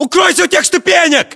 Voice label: order cover steps, ru; localized english

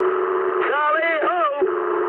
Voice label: eng enemy detected mood med, speech eng

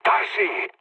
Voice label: bomb failure, mood low, speech; War Thunder

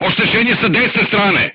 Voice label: voice message shot right, crew tanks serbian

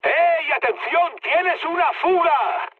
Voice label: tank damaged, mood high, speech; War Thunder

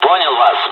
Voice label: dialogs russian; War Thunder

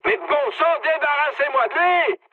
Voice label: aircraft damaged mood high, speech fr

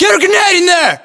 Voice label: order action grenade, US; localized english; battlechatter

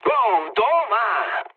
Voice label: trick, mood low, speech; War Thunder

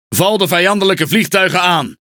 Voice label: Speech Quick Commands Enemy Planes, Languages NL; World Of Warships

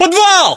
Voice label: location basement generic, battlechatter ru; localized english

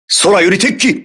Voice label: voice message air alert, crew ships japanese

